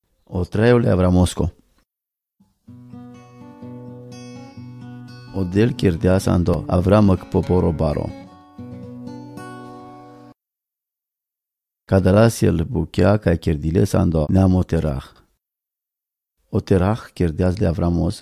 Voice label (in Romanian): Dialectul ursarilor din Oltenia; Geneza